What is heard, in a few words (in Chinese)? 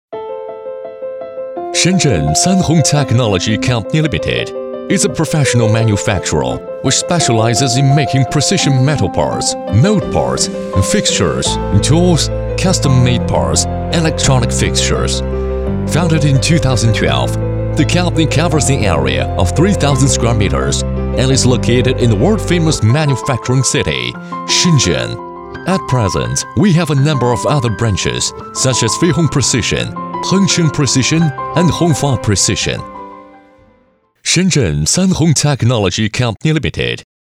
男英 宣传片 企业 低沉; 英语; 深圳公司